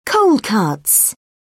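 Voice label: "cold cuts", British English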